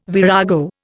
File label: "virago", Indian